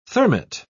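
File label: "thermite", British English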